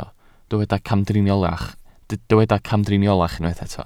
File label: "camdriniolach", Welsh